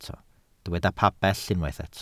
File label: "pabell", Welsh